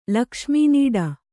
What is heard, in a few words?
"ಲಕ್ಷ್ಮೀನೀಡ", Kannada